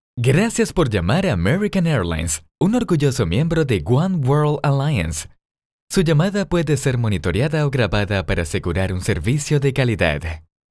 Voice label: Dry Recording